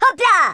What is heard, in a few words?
jump2, speech, Italian